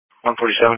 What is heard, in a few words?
Police Patrol 1 (Dispatch)